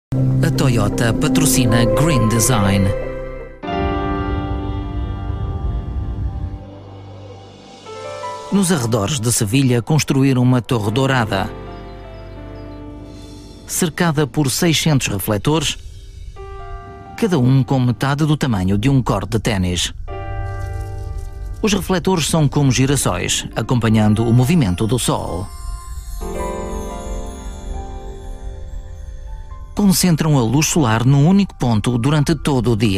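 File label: male voice over Portuguese infomercial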